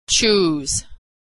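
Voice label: "choose", American English; vowel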